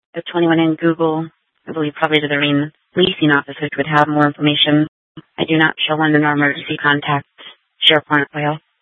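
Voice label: Police Department North Dispatch, scanner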